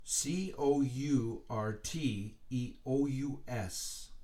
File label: Courteous, letters being spelled out